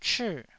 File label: "chii4", taiwanese